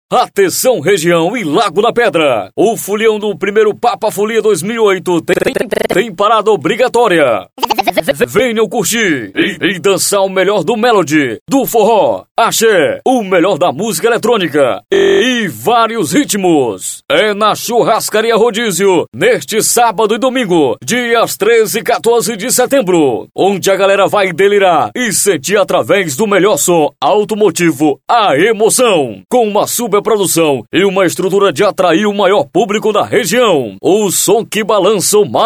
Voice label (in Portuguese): locutor jovem padrao